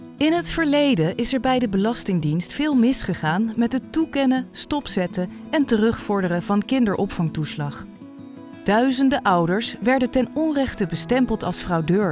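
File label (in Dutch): Explainer Belastingdienst toeslagenaffaire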